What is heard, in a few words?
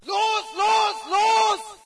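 german go go go, player; video games; source engine; dod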